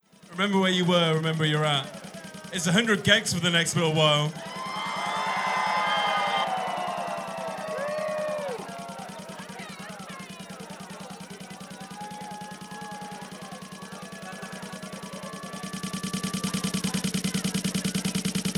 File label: Announcer Commentary; Boiler Room; Los Angeles, Mar 5, 2023